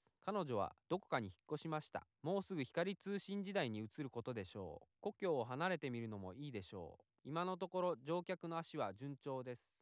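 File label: male, instantaneous; SiSEC11; underdetermined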